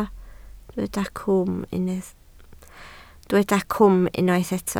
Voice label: "cwm", Welsh